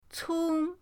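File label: "cong", Mandarin pinyin, first tone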